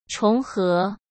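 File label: "重合", Mandarin Chinese; hsk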